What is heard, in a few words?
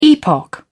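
"epoch", British English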